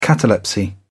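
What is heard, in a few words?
"catalepsy", British English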